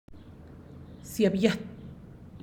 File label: zíab yát, tortilla atole, Santiago Matatlán Zapotec; atole de tortillas